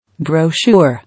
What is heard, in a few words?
"Brochure", English